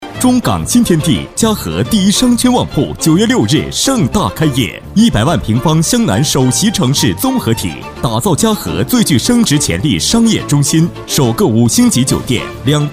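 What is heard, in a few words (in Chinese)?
促销, 男; 中港新天地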